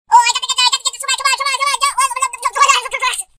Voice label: crazy voice ringtone